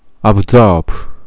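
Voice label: "absorb", Japanese, male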